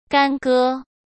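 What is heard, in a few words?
"干戈", Mandarin Chinese; chinese-flashcards; hsk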